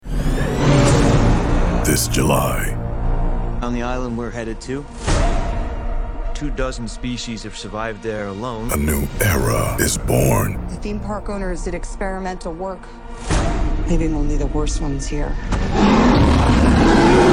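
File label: english american movie trailers, male; american voice actors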